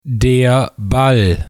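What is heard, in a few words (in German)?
"Ball", German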